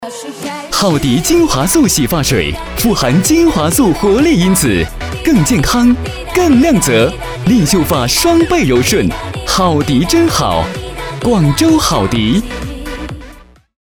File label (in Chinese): 男 年轻时尚 洗发水; 好迪洗发水